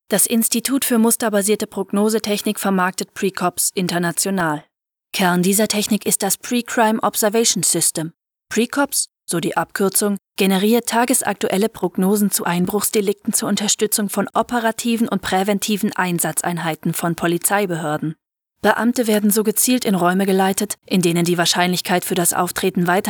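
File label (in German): product trailer, deutsch frauen; sprecher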